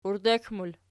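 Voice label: "uirdek" plural, Archi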